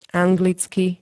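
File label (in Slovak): "anglicky", Slovak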